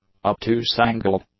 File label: "obtuse-angled", english; adjectives